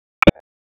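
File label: Police LE Ops, radio; Raleigh; LE Ops 6; wake; p25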